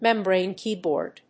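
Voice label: "membrane keyboard", English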